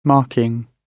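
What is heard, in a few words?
"marking", British English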